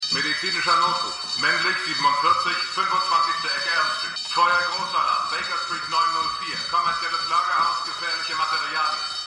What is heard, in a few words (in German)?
Durchsage; Frasier